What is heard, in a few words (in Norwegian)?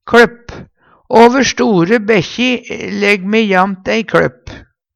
kLopp, Numedalsmål; Over store bekkji legg dei jamnast ei kLøpp.